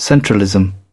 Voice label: "centralism", British